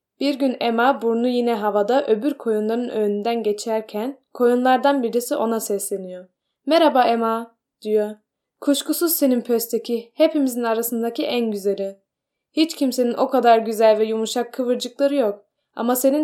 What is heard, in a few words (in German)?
türkisch